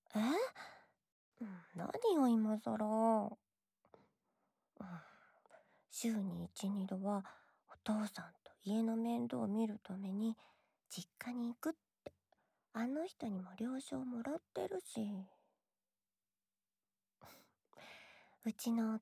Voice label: LOVE Whisper